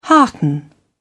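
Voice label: "hearten", British English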